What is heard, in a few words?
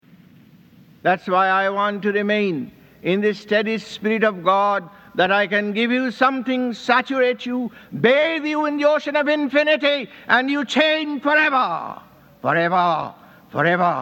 Yogananda Lion Voice